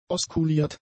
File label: "oskuliert", German; adjectives